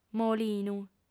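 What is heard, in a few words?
"molinu" Sardinian, female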